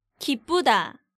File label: "Gibbeuda", Korean